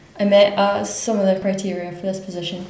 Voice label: interviewee female